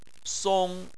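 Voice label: "song", Chinese, first tone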